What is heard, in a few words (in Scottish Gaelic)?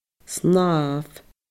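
"snàmh", Scottish Gaelic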